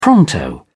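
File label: "pronto", British English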